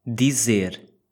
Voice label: "dizer", portuguese